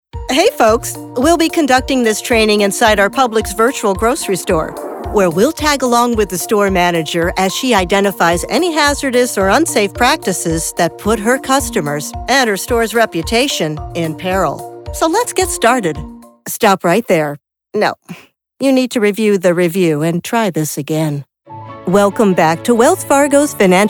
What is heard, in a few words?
eLearning